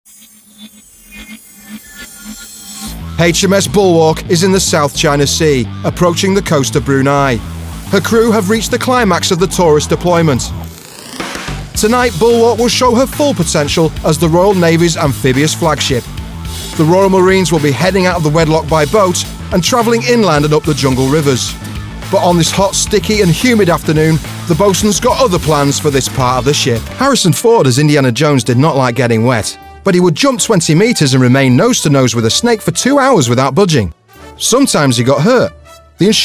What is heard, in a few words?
narr